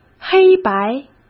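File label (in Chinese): "黑白", Mandarin Chinese; hsk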